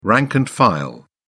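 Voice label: "the rank and file", British English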